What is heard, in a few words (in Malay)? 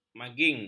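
"maging", Malay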